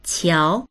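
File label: "qiao2", Mandarin Chinese; 桥; 橋; dictionary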